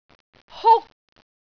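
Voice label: "huk1", Cantonese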